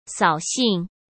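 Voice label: "扫兴", Mandarin Chinese; chinese-flashcards; hsk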